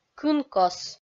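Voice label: "кын-кос", Komi, female; kpv; audio dict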